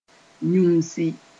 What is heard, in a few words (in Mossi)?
"yũmsi", moore